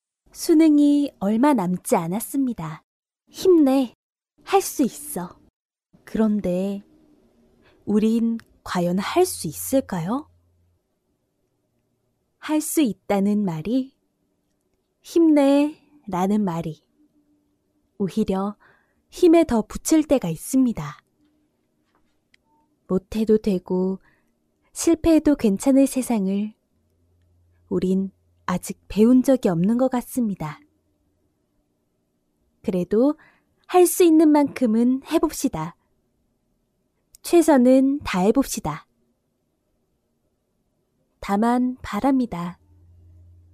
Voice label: 韩语女声 旁白

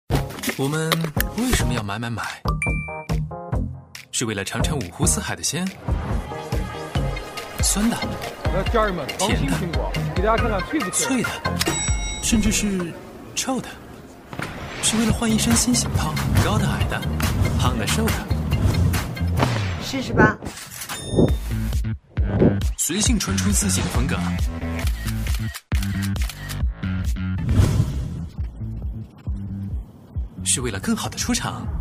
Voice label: 男 数字科技, 时尚质感 科技时尚; 快手信任购

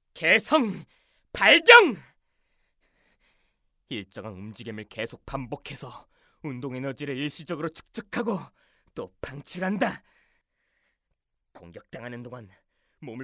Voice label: 韩语男声 十大英雄

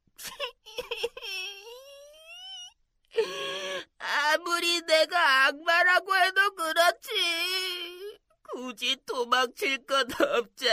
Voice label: 韩语男声 调皮的小恶魔

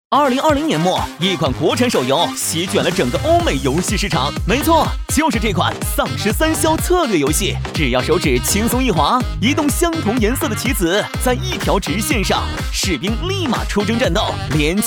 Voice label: 男-信息流广告-游戏, 全能型 轻松活泼

